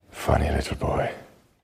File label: funny little boy